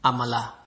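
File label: "amala", Nigerian Pidgin, male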